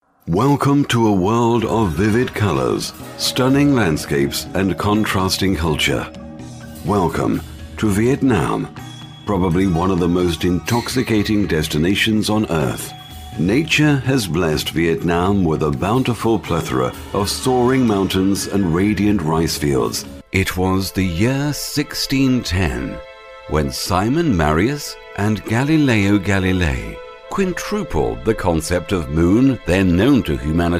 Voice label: Various Documentary Narration